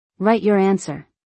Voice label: Write your answer english; Time and Routine